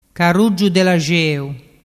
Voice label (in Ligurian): "caruggiudellaxeu", dialettu